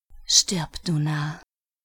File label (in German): Narr